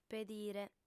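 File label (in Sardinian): "pedire", female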